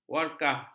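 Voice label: "warkah", Malay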